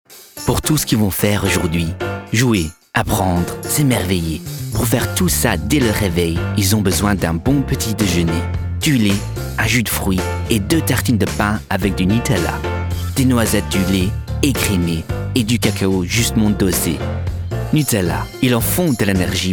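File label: French, Commercial; voice demos; GoLocalise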